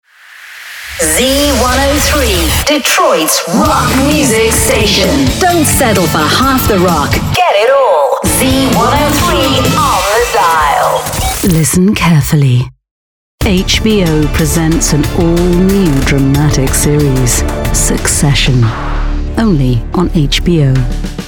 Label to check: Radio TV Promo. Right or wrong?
Right